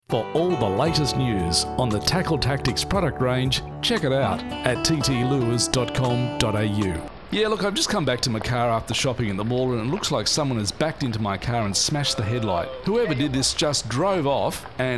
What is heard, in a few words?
Commercial Mixed